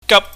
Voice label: "gap", chinese